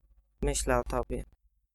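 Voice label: thinking of you, female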